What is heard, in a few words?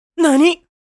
What nani anime boy character